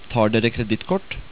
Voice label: "tar dere", Norwegian

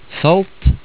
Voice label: "salt", Norwegian; word of day

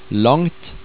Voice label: "langt" Norwegian

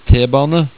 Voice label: "T-bane" Norwegian

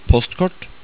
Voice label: "postkort" Norwegian; word of day

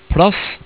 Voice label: "plass", Norwegian

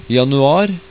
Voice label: "januar" Norwegian

